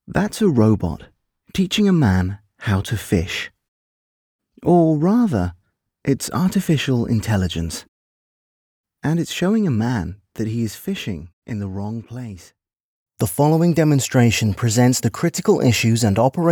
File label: Corporate Elearning